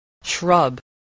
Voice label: "shrub", spelling

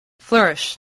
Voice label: "flourish", voice

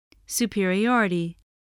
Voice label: "superiority", English

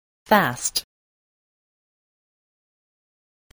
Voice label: "fast", voice

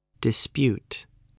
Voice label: "dispute", spelling

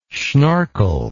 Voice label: "schnorkle", English

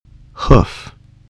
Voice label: "hoof", voice spelling

